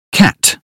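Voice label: "cat", British English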